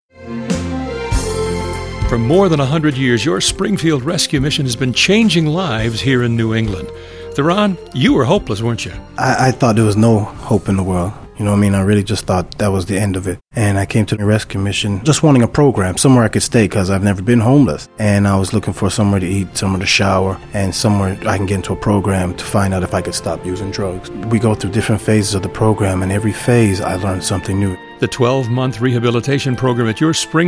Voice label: thanksgiving psa